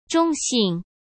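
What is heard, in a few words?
"中性", Mandarin Chinese; chinese flashcards; hsk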